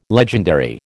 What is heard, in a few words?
"legendary", American